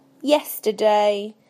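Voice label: "yesterday", British English; PictureDictionary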